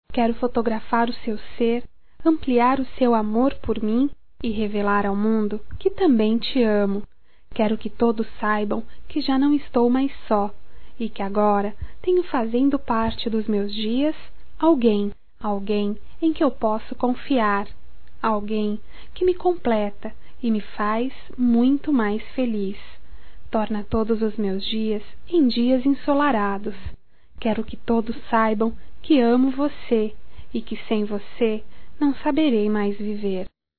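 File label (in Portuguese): FOTOGRAFIA, female, Telemensagens, Só Voz; Telemensagens 2017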